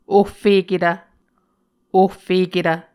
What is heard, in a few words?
"ohfeketv", Muscogee